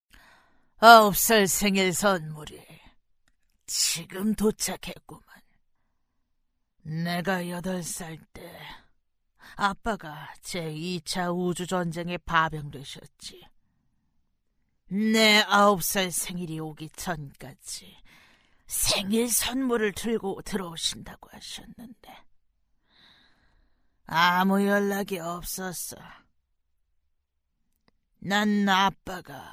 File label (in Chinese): 韩语女声